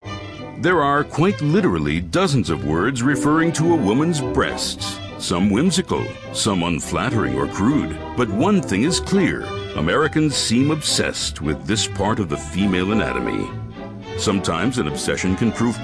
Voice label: PSA